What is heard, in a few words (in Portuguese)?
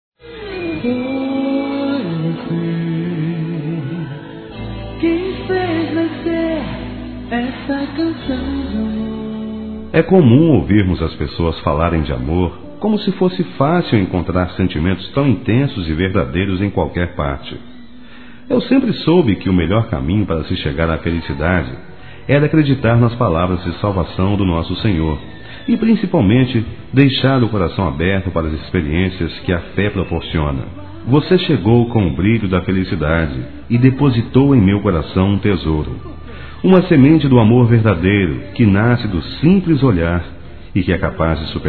Evangélico Aniversárioi Romantico Masculino, Telemensagens